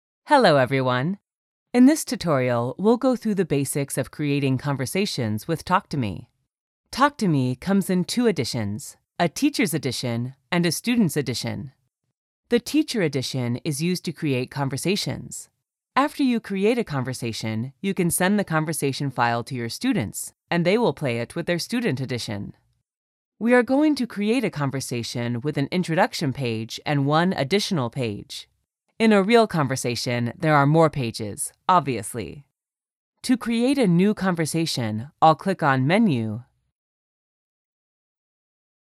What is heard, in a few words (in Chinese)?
外籍英女 产品说明